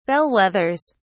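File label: "bellwethers", British English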